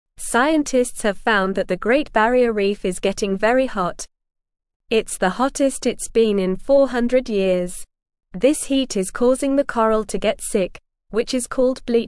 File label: English Lower Intermediate NORMAL Reading; Help the Great Barrier Reef Stay Healthy and Safe; English Newsroom